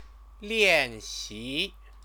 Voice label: "lianxi", Chinese